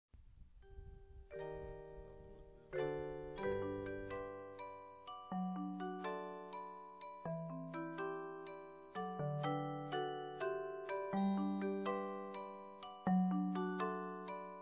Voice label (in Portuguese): FILHA P PAI INFANTIL; Telemensagens 2017